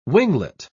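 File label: "winglet", British English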